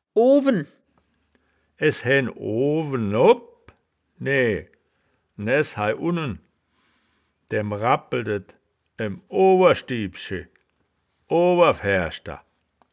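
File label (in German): "oowen", wittlicher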